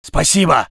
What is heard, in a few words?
voice sov infantry